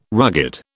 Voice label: "rugged", British